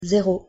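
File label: pronunciation "zero", French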